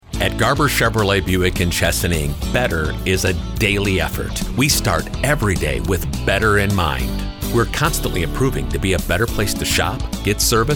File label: Radio; Garber Chevy Chesaning